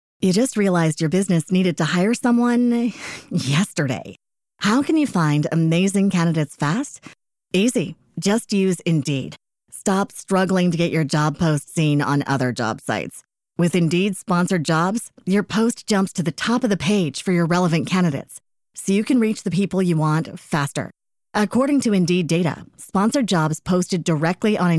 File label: spelunking horror stories cave diving scary stories rain; black screen for sleep